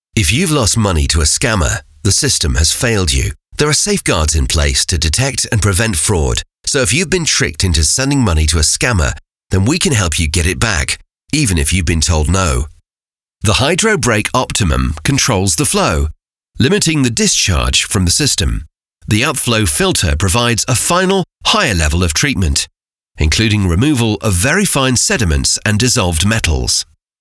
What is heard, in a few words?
english british e-learning